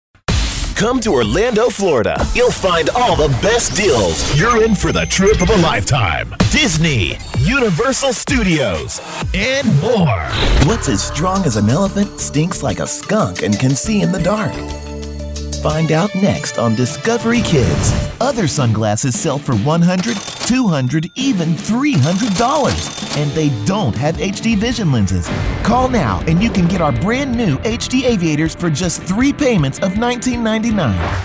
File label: Promo